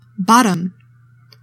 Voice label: "bottom", english; 3000 words

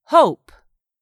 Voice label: "hope", English; 3000 words

